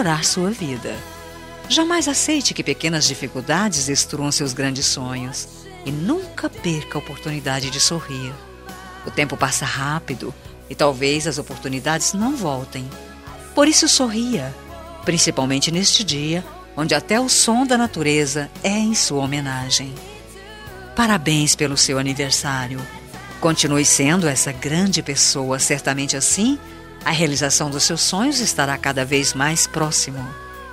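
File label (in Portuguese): birthday CUNHADO DISTANTE female, telemensagem; aniversario cunhada cunhado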